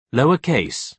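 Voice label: "lower case", British English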